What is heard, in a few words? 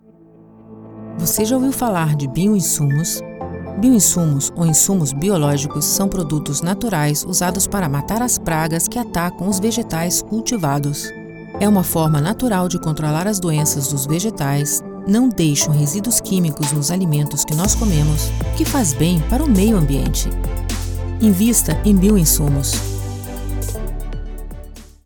portuguese brazilian corporate videos